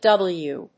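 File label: "double-u", English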